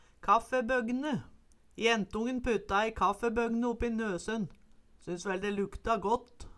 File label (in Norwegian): kaffebogne, Numedalsmål